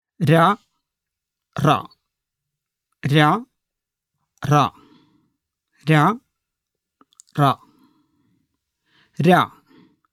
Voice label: Malayalam Recordings Basic Pronunciation